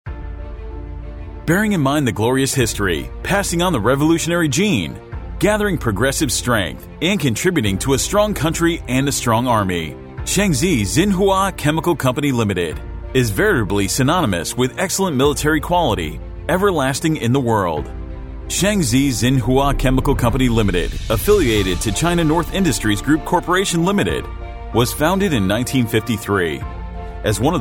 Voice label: 男 大气澎湃军工企业宣传片; 男6-高端大气; 质感磁性

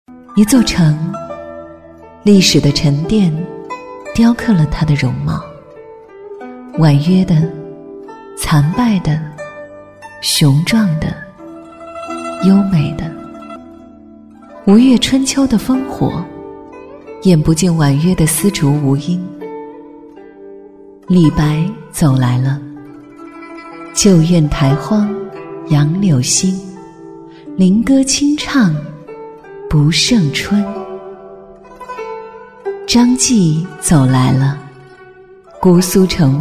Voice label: 女 城市形象片; 一座城

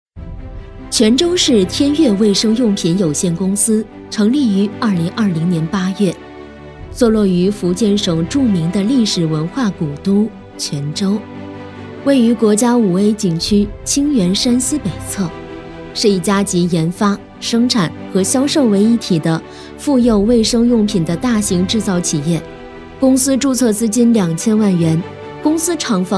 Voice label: 女, 公司宣传片, 年轻稳重; 泉州天悦

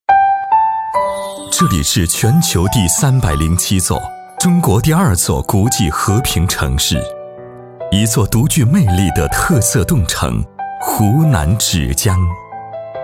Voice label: 男 城市宣传 大气磁性; 厚重磁性; 大气浑厚; 湖南芷江

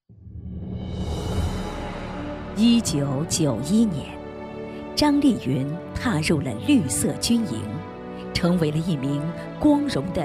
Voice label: 女-人物专题片-走心有感情; 深情感人

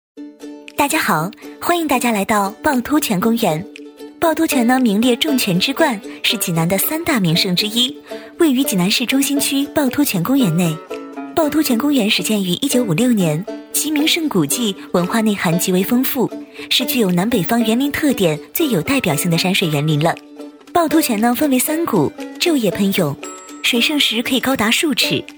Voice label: 女 景区导游词 趵突泉 亲切甜美; 旅游风光景区配音

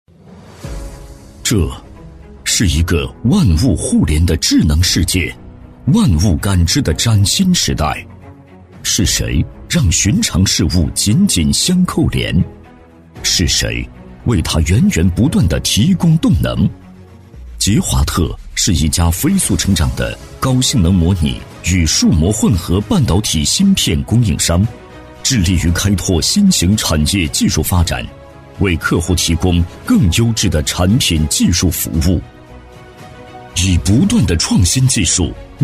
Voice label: 男, 企业宣传, 磁性厚重; 杰华特

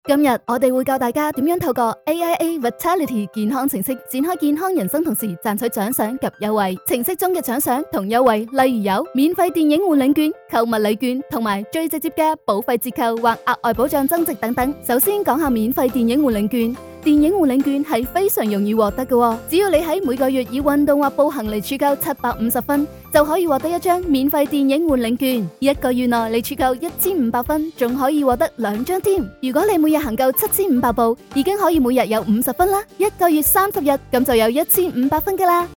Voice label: 女粤-粤语广告【优惠卷使用】

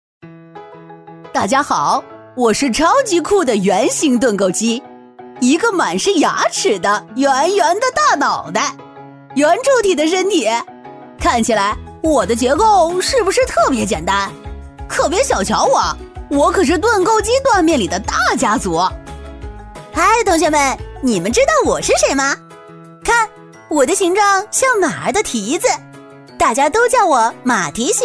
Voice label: 女 伪童MG 各种角色; MG飞碟说配音

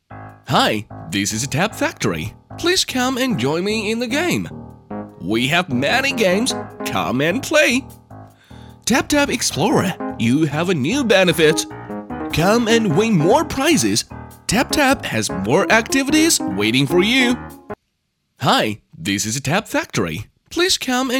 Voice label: 男 英语配音 年轻 信息流广告

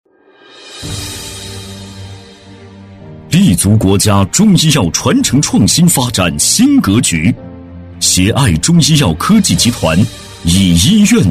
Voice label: 男, 医药宣传, 恢宏大气; 高端厚重; 大气浑厚; 协爱

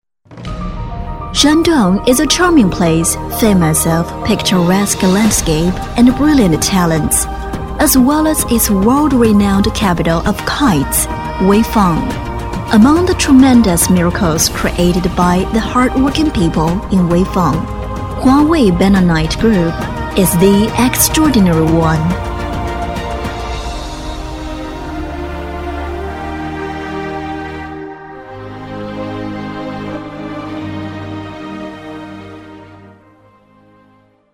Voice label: 女, 英文, 企业宣传, 大气; 大气浑厚

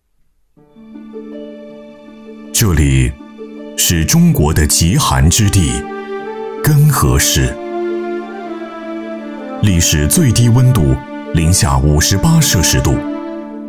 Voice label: 男, 纪录片, 娓娓道来; 根河市; 男195-纪录片【根河市】娓娓道来

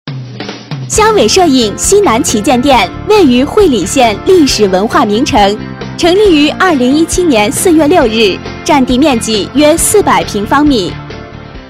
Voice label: 女 广告配音 摄影 轻快; 肖伟摄影

